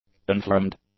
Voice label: "unframed", english; adjectives